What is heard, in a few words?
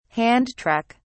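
"hand truck", British English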